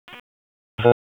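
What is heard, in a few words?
Raleigh Police Northeast District Dispatch, p25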